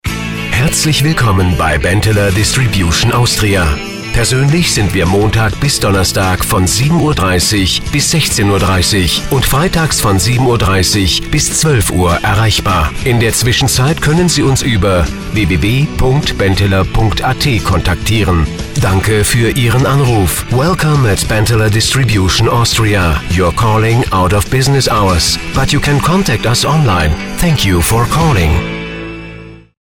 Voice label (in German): telefon; benteler